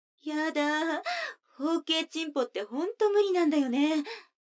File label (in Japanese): 女の子台詞, ASMR